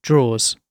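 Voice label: "draws", British English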